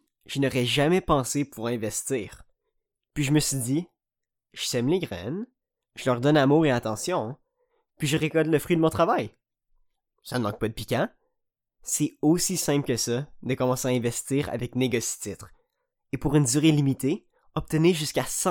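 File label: French Canada male voice over, sales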